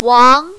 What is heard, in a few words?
"wang2", mandarin, female